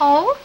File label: "oh" girl curious; ooh ahh